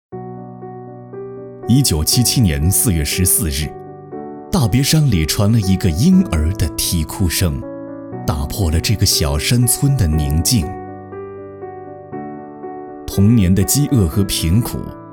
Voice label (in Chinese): 心路历程类配音